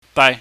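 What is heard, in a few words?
"bai", Cantonese, mid tone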